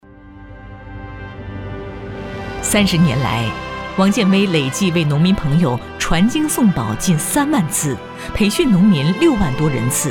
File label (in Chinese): 女 人物专题 党政大气